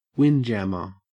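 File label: "windjammer", Australian English; pronunciations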